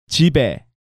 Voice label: "Jibe", Korean